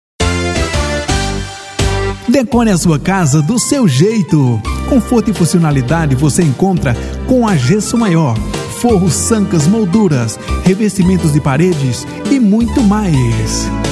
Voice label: comercial

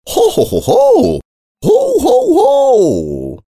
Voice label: locutor publicitario vinheta; MENSAGEM DE NATAL E FIM DE ANO; banco de voz off jingle

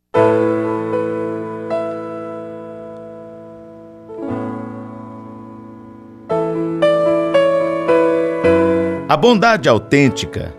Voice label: locutor reflexao

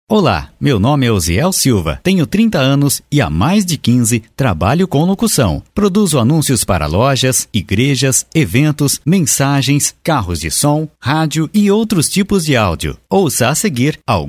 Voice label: locutor spots

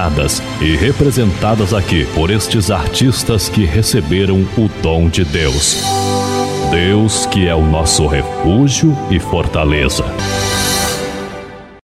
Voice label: locutor abertura sejam bem vindo

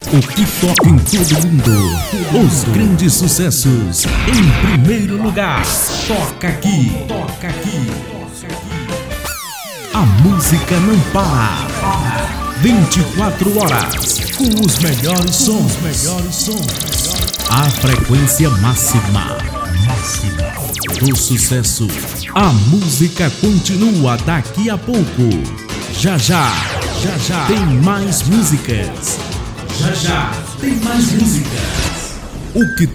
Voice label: pacote de vinhetas radio fm

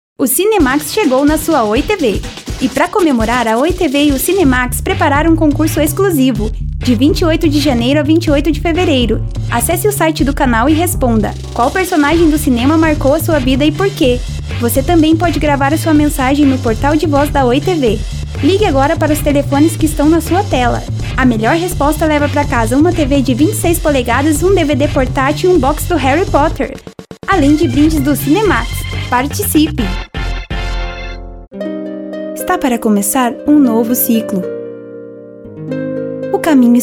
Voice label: estilo jovem infantil

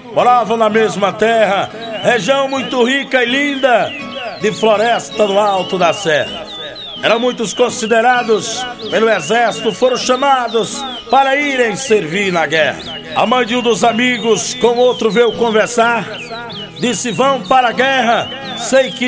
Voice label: locutor poema; poema do soldado amigo

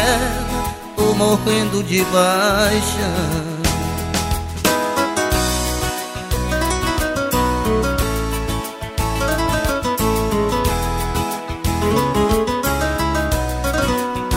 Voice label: locutor

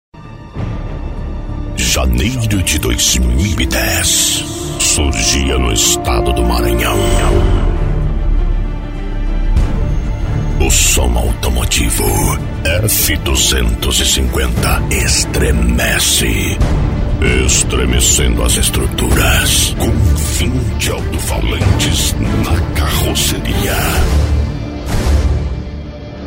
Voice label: abertura locutor; f250 estremece

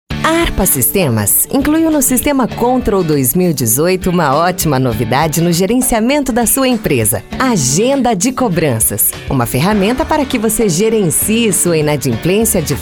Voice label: locutora

